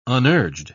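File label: "unurged", English, British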